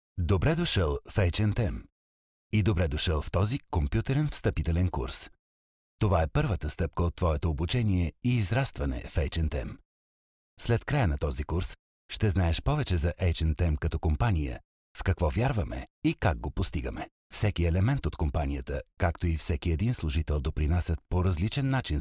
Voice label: bulgarian m e-learning; voice demos

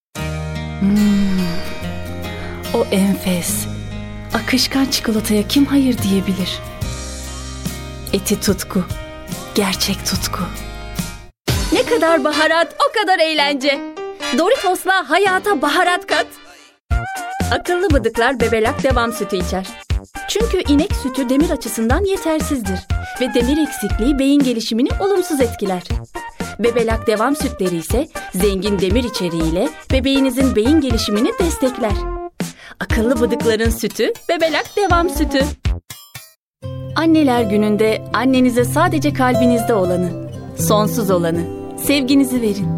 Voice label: turkish f commercial

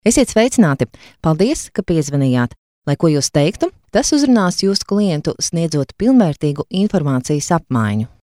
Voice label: latvian f narration, Latvian voice; voice demos

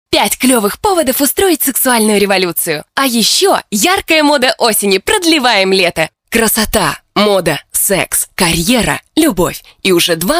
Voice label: russian f commercial; voice demos

